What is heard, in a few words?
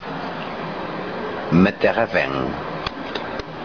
"Metesie", Tobi; Curved side; Canoe part: metesie; Fishing Lore of Tobi